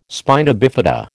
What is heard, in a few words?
"spina bifida", American